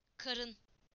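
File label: "stomach", Turkish; PictureDictionary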